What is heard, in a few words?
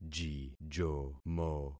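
"jijomo", concatenated syllables; Affixing experiment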